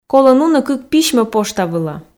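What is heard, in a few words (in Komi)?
колӧ нуны кык письмӧ пошта вылӧ, Komi, female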